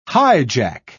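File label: "highjack", English, British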